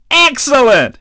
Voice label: nice, DJ VOICE; Nintendo; wr64